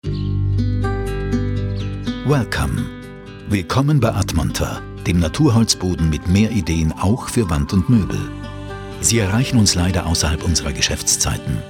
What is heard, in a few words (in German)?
Telefonband